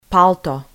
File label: "palto", Macedonian